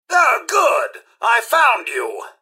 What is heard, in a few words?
generic robot alert to combat; mrhandy; npc